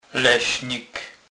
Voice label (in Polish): "lesnik", kurpiow